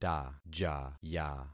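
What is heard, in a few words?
"tajaya", concatenated syllables; Affixing experiment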